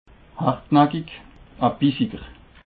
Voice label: "hartnakich", alsace

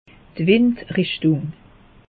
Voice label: "wind richtung", alsace; meteo

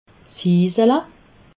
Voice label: "hiisele", alsace

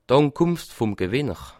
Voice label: "ankunft", alsace

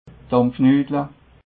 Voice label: "dampfnudel", alsace

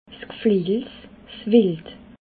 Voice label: "gflejels", Alsace

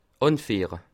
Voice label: "anfiehre", Alsace

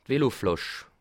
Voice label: "veloflasch", alsace

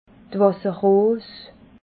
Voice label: "wasserrose", alsace

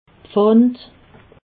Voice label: "pfand", alsace

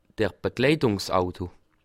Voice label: "begleit", alsace

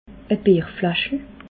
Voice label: "bierflaschel", alsace

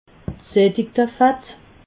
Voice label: "gsattigte fatt", alsace

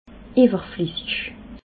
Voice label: "iwwerflessig", alsace

